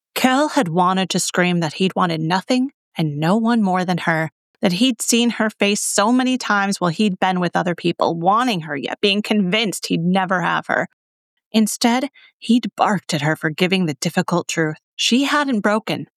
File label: Polyamorous Narration